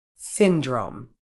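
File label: "syndrome", British English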